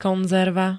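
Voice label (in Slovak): "konzerva", Slovak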